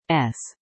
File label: S female